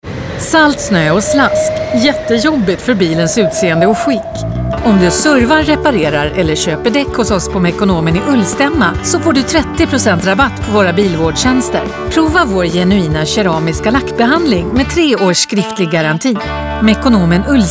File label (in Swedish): Radioreklam